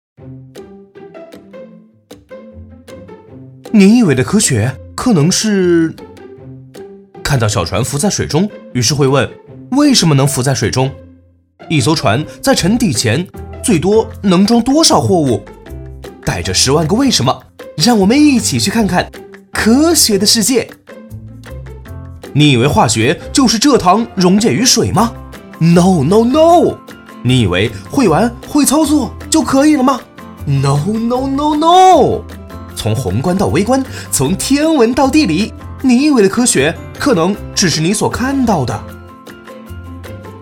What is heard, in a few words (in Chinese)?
轻快科普; 轻快科普科学组